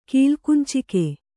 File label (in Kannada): "ಕೀಲ್ಕುಂಚಿಕೆ", Kannada